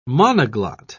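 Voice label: "monoglot", English, British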